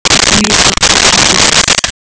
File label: speech nav; google android apps maps